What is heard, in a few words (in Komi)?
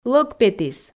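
лӧг петіс, Komi, female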